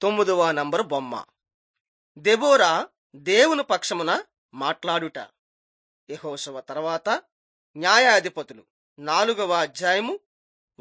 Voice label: telugu bangladesh